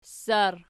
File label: "ssar", Archi